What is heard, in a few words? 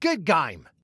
Good Game, Male